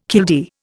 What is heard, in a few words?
"killdeer", Indian